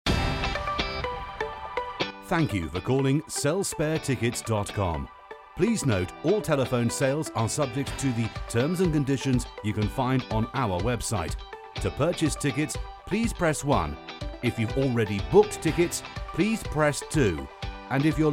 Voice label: english british ivr

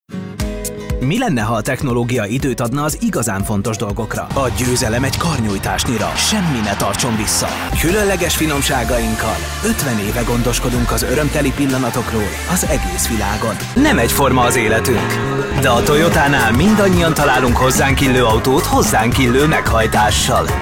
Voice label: hungarian commercial

